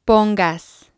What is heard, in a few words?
"pongas", Spanish